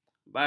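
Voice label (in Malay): "bajau", Malay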